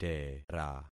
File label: "tera", concat syllables; Affixing experiment